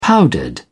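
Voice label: "powdered", British English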